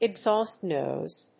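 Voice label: "exhaust noise", English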